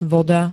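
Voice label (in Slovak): "voda", Slovak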